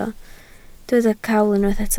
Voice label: "cawl", Welsh